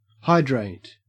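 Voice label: "hydrate", Australian English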